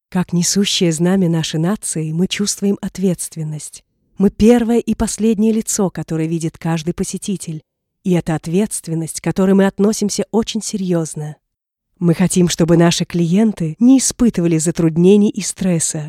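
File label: Russian, female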